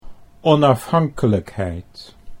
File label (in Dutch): "onafhankelijkheid", Dutch